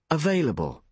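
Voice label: "available", British English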